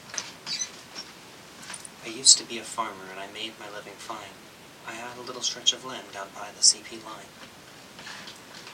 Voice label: headset test spoken 5ft amp